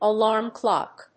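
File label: "alarm clock", English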